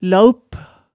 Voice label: "laaup", hemsedalsmaalet tale

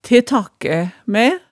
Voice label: "te takkæ mæ", hemsedalsmaalet tale

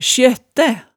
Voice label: "sjøttæ", hemsedalsmaalet

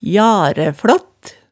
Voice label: "jareflaatt", hemsedalsmaalet

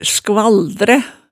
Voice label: "skvaldræ", hemsedalsmaalet

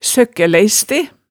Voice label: "søkkælæiste", hemsedalsmaalet tale

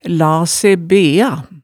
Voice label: "la se bea", hemsedalsmaalet tale

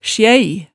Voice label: "sjæi", hemsedalsmaalet

